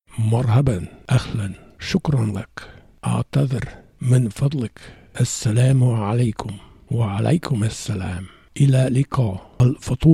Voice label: Arabic, Pronunciation